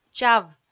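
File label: "чав", Komi, female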